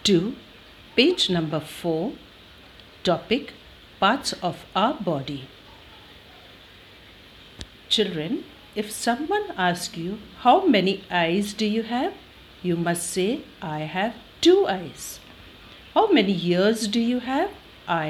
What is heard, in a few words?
Conversation